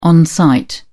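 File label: "on-site", English, British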